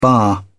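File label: "barre", British English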